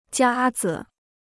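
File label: "夹子", Chinese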